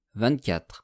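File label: "vingt-quatre", French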